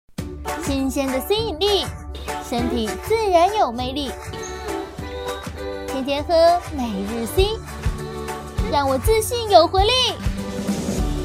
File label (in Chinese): 廣告活潑女生